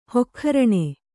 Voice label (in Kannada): "ಹೊಕ್ಖರಣೆ", Kannada